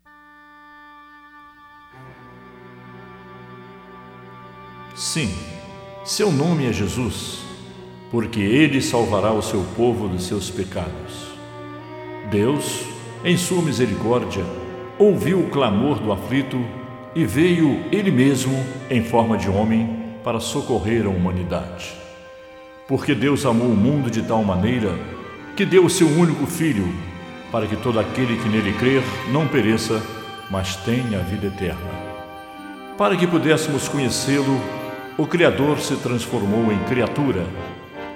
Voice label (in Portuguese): Narração